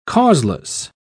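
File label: "causeless", British English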